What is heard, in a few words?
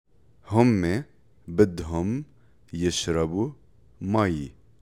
Spoken Arabic phrases